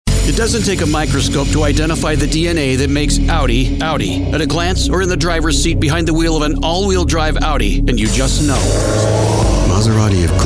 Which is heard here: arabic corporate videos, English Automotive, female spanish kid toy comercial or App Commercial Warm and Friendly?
English Automotive